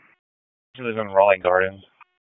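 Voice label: Raleigh Police Southeast District Dispatch, p25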